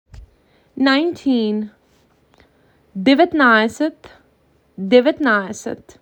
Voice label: MAC 6, macedonian phrasebook